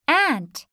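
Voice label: "ant", English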